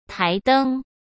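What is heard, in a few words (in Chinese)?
"台灯", Mandarin Chinese; hsk; chinese-flashcards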